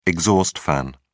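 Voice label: "exhaust fan", British English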